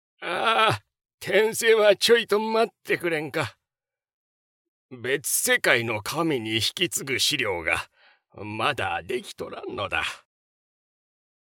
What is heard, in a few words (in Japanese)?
老人